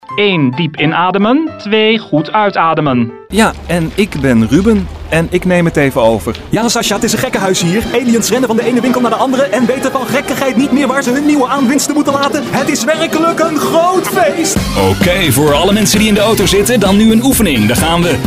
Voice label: nl voice acting

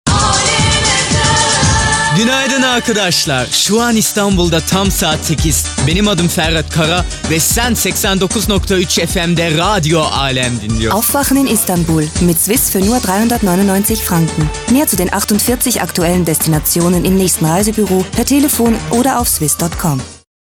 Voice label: radio

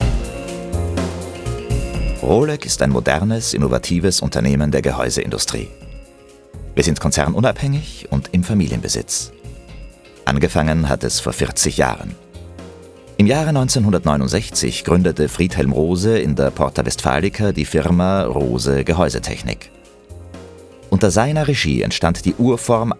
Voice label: corporate; gehause

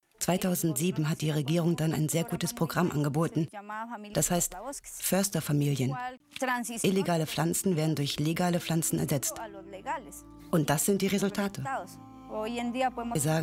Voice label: voiceover

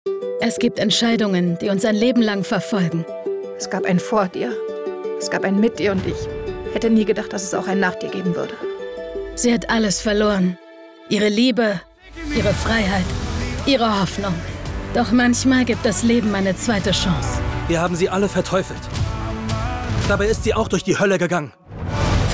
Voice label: storytelling

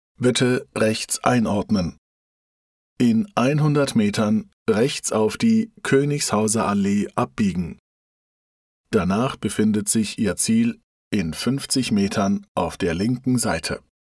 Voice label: robovoice

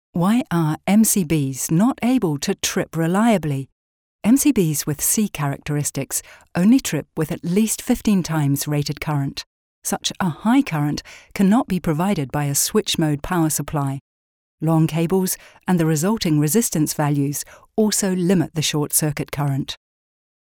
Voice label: stimmprobe technical

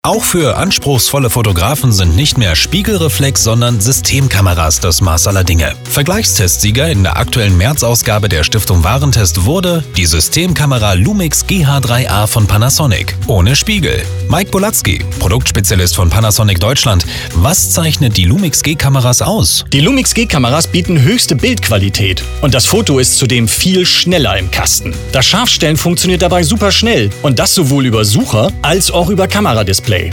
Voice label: infomercial; panasonic lumix

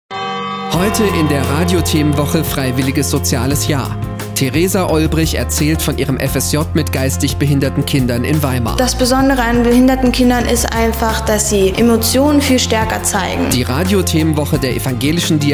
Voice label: trailer; lwt; fsj

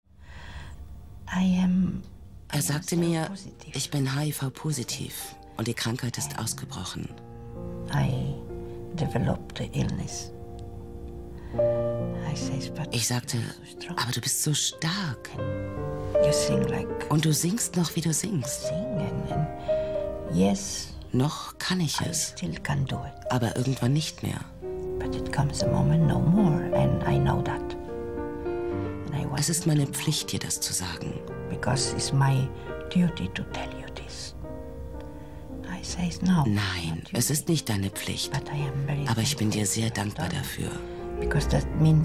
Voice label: voiceover

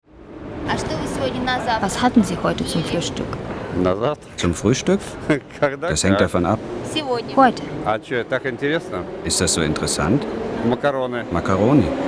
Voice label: feature voiceover

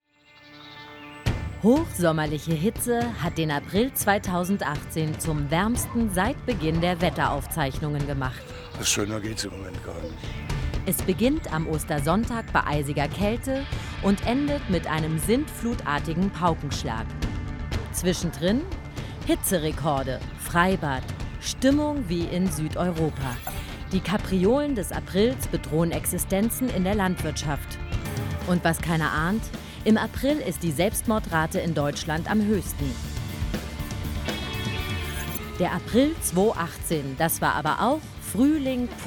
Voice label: tv voice over; quarks xl wdr

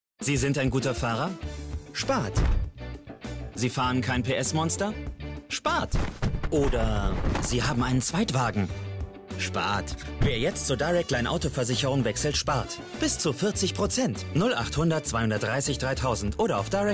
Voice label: tv spot; direct line

